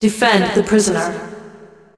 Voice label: Mars Prison Announcer; TimeSplitters 3